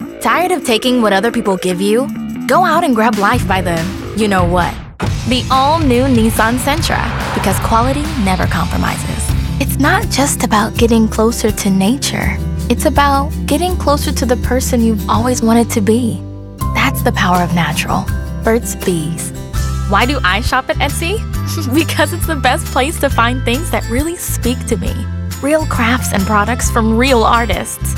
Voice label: Commercial, en-AU